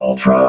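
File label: "ultra", robot voice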